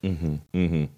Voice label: pair of mmm hmms

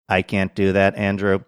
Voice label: I can't do that robotic

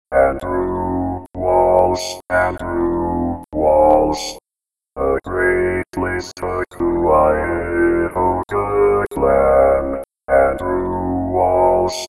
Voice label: pipe organ voice

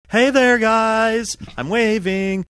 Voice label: hey there guys I'm waving sing songy

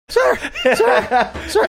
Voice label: saying sir sir sir cracked voice

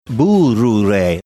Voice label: saying something incoherent spanish

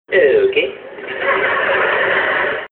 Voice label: okay with laughter poor quality

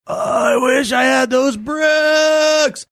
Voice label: saying i wish i had those bricks funny

